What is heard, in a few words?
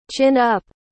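"chin up", English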